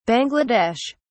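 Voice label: "bangla desh", British English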